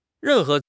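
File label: "ren4 he2", Mandarin Chinese; 汉语口语新时代-初级下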